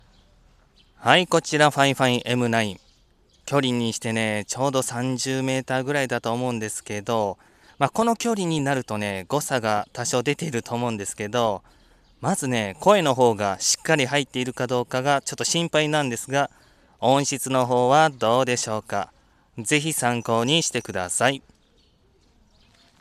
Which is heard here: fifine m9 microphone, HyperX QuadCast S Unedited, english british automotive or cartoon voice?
fifine m9 microphone